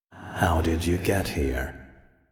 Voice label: Voice Dialog; We Are the Dwarves